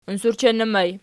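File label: "unsurchennu" ergative plural, Archi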